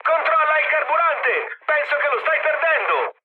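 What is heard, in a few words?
Italian tank damaged mood high, speech; War Thunder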